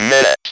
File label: "MINUTE", Speak & Spell